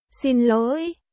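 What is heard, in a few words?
Vietnamese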